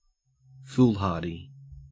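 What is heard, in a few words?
"foolhardy" Australian English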